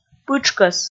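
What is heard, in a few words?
"пытшкӧс", Komi, female; kpv audio dict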